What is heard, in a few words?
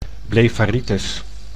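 "blefaritis", Dutch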